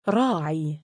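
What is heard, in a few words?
"raa3ii", Arabic, male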